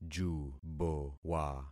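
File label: "jubowa", concatenated syllables; Affixing experiment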